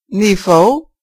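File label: level, Basque; gcompris-data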